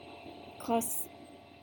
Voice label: "crosse", French